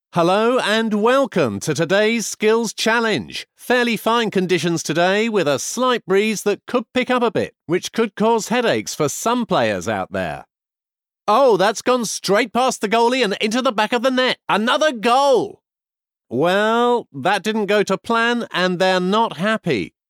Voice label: Sports commentary; gravitas; newsreader; sincere; sports commentator; tv promos; voice of god

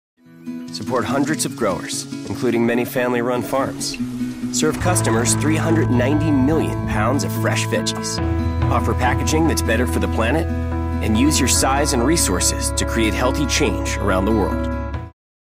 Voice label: Warm compassionate caring; Subway

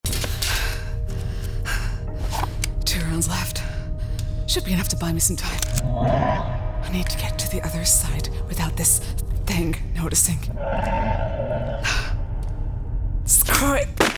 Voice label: Horror Action Scientist

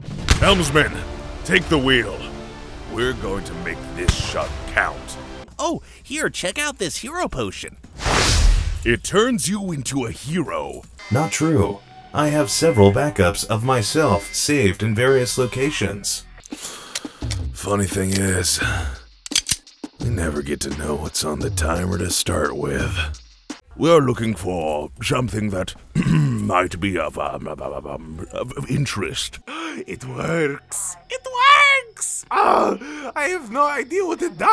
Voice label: Character

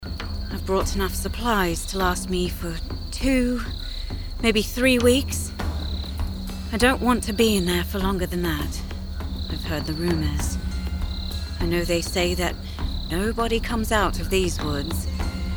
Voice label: UK Adult F Monologue, british rp

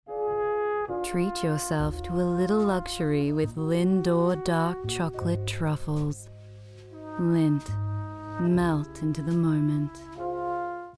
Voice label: Commercial; Lindt

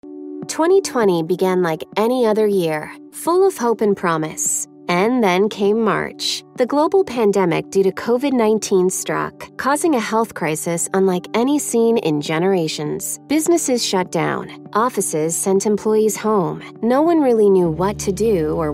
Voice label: Narration; Covid Related

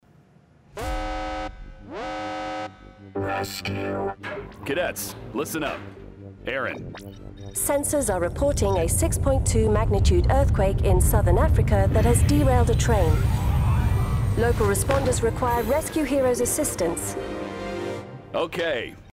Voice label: Cartoon; Rescue Heroes

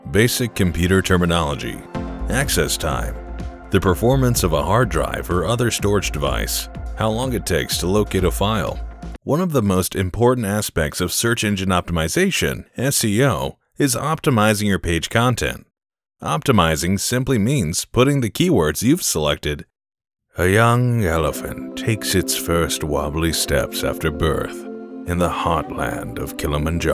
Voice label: Documentaries, en-AU

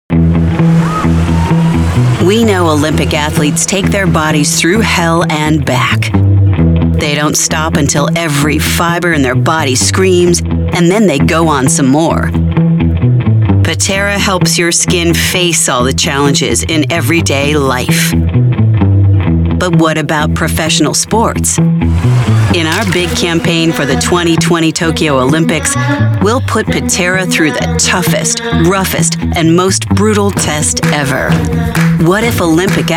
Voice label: Narration Bold Strong, en-AU; SK-II; OLYMPICS

